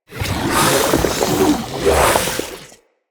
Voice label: Corrupted Sproutfolk Attack Swing, Vocals; Enemy; Characters